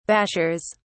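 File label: "bashers", British English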